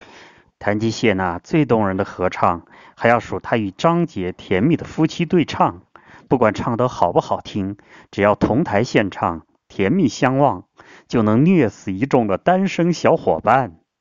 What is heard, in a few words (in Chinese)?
演艺类中文